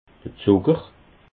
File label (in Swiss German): "zucker", alsace